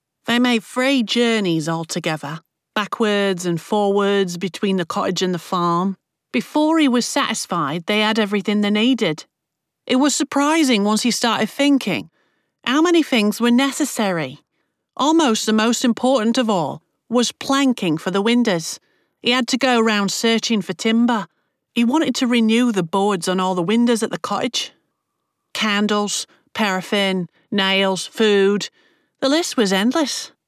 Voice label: Accent Demo London Cockney